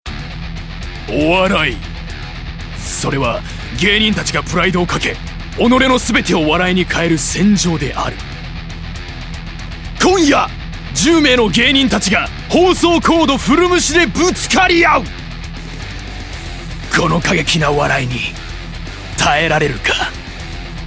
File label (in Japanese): ナレーション（熱い）